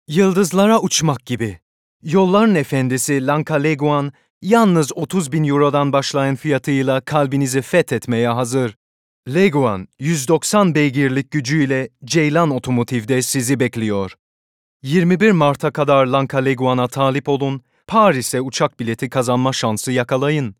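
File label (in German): Werbung Türkçe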